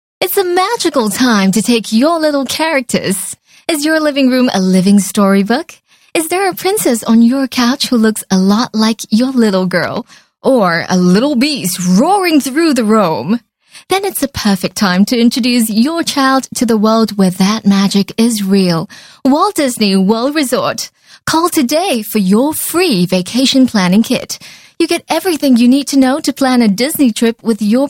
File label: Asian English Commercial Upbeat